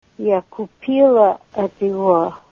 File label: i bought it from him female, Ninilchik Russian